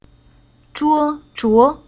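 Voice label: "Zhuo", chinese syllable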